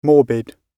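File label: "morbid", British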